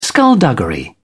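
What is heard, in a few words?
"skulduggery", British English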